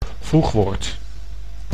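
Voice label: "voegwoord", Dutch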